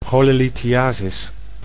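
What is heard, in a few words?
"cholelithiasis", Dutch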